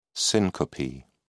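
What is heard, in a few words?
"syncope", British English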